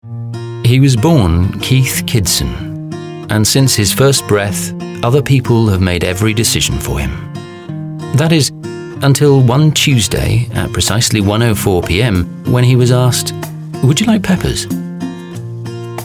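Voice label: commercial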